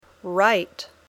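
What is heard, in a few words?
"right", English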